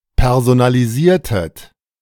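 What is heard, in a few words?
"personalisiertet", German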